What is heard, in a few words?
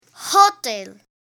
"Hotel", alfabeto aeronáutico